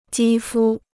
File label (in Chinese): "肌肤", Chinese